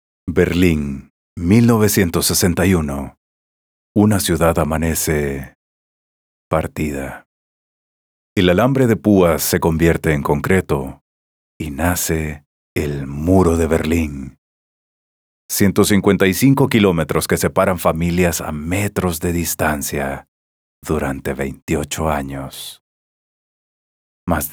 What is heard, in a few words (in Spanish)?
Documental; Caida del muro de Berlin